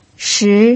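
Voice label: "shi" Mandarin Chinese, second tone, pinyin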